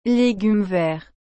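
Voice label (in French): "légumes verts", French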